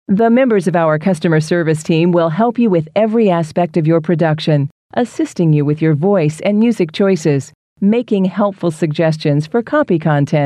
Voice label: Female